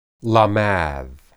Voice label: "lamad", Hebrew